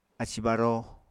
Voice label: "ací-baró", apatani